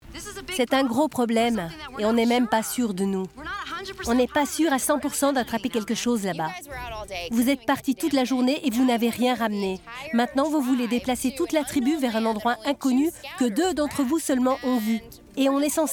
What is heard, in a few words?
Caveman, voice over